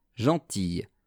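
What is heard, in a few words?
"gentille", French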